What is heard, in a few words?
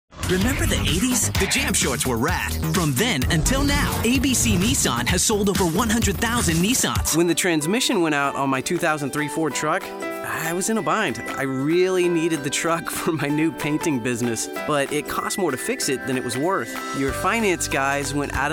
Car, auto dealer